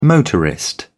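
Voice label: "motorist", British English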